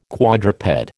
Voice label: "quadruped", American